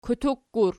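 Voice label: "kutuk", plural, Archi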